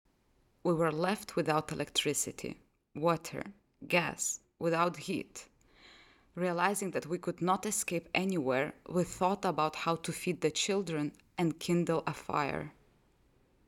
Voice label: female, Lithuanian and Russian, English with Eastern European accent